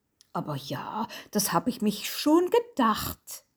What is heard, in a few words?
my german